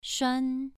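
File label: "shuan" Mandarin Chinese, second tone, pronunciation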